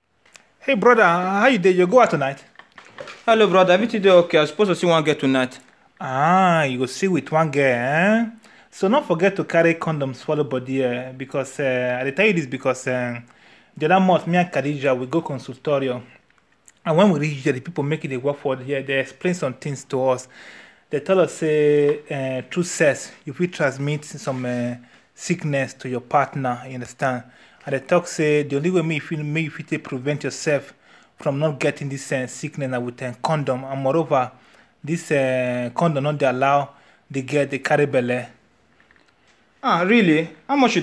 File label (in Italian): Pidgin uomini; icare; guidaaiservizi